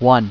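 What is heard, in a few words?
"One", English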